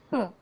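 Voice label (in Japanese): "ほぉ" Japanese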